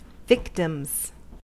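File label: "victims", US English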